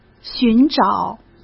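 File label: "寻找", Mandarin Chinese; hsk; chinese-flashcards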